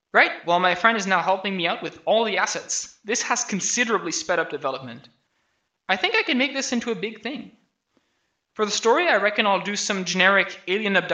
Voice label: voice, narration